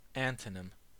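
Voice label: "antonym", American English; pronunciations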